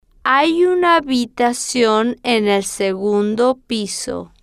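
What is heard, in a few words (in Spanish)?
hay Una Habitacion En El Segundo Piso, Spanish, female, slow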